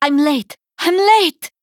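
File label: Female Draenei Play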